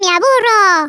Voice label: "boring", Hispanic; Worms Armageddon

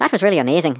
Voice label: "amazing", Irish; Worms Armageddon

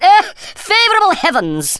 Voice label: perfect, Speech Thespian; Worms Armageddon

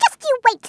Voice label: "just you wait", Brooklyn; Worms Armageddon

